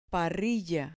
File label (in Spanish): "parrilla", Spanish